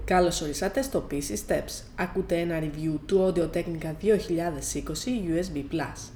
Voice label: voice 50cm front